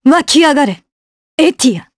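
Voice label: Vox Skill, voices, Japanese; Isolet; kingsraid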